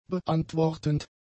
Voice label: "beantwortend", German; adjectives

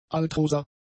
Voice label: "altrosa", German; adjectives

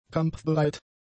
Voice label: "kampfbereit", German; adjectives

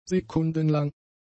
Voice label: "sekundenlang", German; adjectives

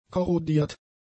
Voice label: "korrodiert", German; adjectives

